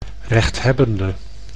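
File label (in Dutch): "rechthebbende", Dutch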